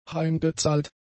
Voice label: "heimgezahlt", German; adjectives